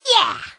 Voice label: fem fire vo

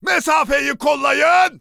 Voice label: neutral

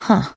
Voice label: monolog; 商人杰恩（七日杀）

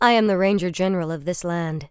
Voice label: pissed, en; Sylvanas

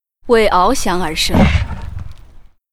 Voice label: pissed; Hippogryph with rider; zh

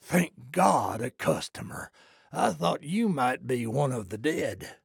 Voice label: trader male like greeting

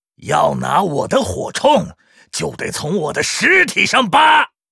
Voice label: rifleman pissed; 矮人火枪手（魔兽争霸3）

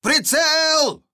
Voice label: neutral

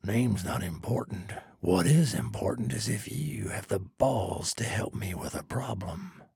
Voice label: trader male dislike quest offer

